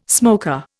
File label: "smoker", Indian